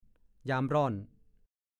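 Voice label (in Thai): "ยามร่อน" ภาษาโคราช; ฤดูร้อน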